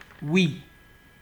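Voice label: "we", Nigerian Pidgin, male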